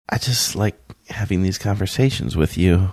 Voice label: saying i just like having these conversations with you ultra chill manner